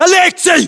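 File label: "aleksei", battlechatter, Russian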